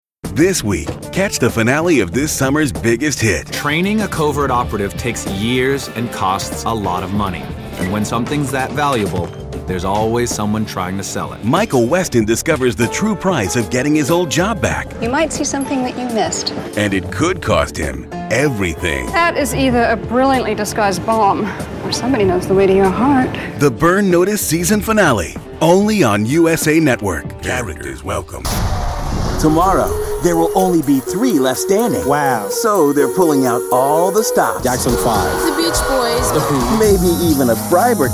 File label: TV Male, promos